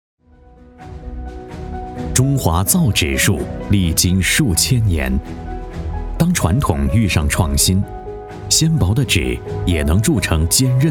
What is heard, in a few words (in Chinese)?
男 一般专题片样音 造纸术